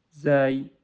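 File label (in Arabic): "ز", Arabic; quiz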